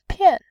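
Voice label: "pian", Mandarin, tone 4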